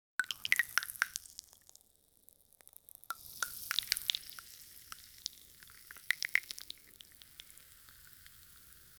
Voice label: african male saying; inkom